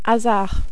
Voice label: "hasard", French

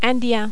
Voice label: "indien", French

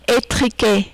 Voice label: "etrique", French

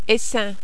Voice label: "essaim", French

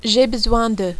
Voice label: j ai besoin de, French

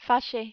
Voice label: "fache", French

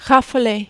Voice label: "raffoler", French

